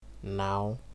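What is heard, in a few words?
"naw", Nigerian Pidgin, male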